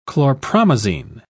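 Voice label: "chlorpromazine", British English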